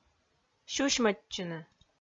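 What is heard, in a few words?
"сюсьмӧдчыны", Komi, female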